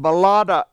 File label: "bilAAda7", Washo; Money